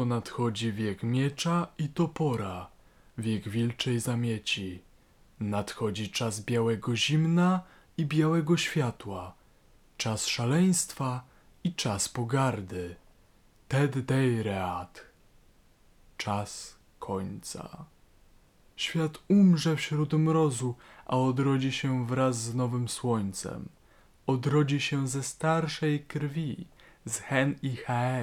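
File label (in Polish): genesis radium 400 oba filtry, mikrofon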